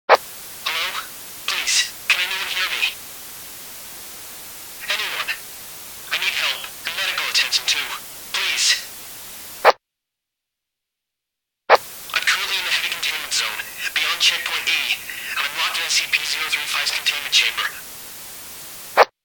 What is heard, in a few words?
Radio Help; SCP 035